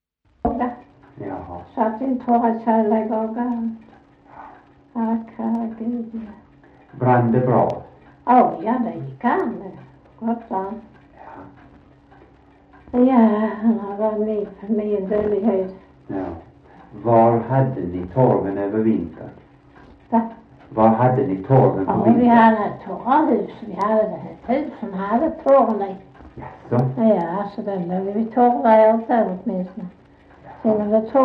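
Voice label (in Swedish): Sövde Skåne, Svenska sagor dialekter; Folkminnen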